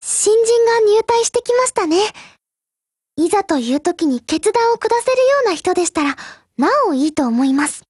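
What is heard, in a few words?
BUILD OVER, Japanese, Voice; GF Alarm; M1895MG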